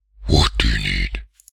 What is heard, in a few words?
What Do You Need, human male evil; customnpcs